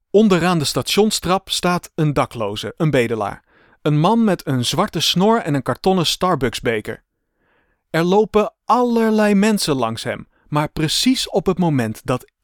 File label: audiobook; Radio Laika